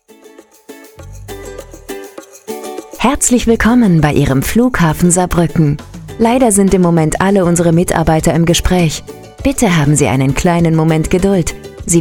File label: german ivr